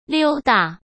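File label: "溜达", Mandarin Chinese; chinese flashcards; hsk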